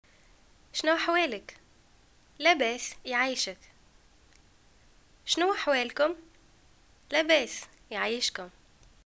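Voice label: "greeting how r u", Tunisian Arabic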